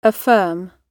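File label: "affirm", British English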